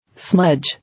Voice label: "Smudge", English